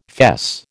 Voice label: "fess", American